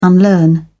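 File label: "unlearn", English, British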